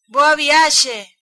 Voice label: bon voyage, galician